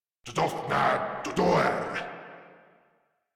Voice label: Reload, Grineer Male